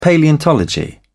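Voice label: "paleontology", British English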